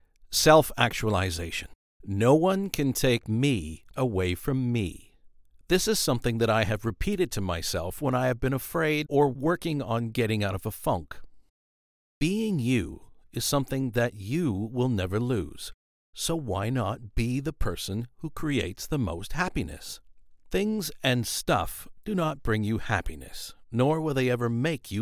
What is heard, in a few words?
audiobook sample